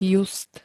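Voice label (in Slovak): "just", Slovak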